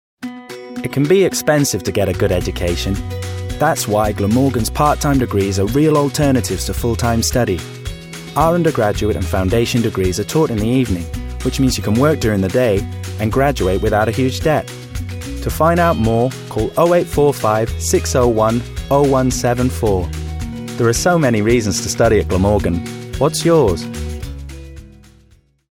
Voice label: advert; university of glamorgan